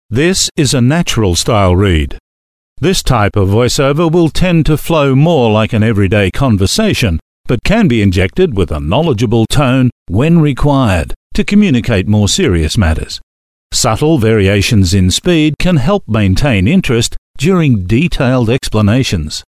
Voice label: on hold messages male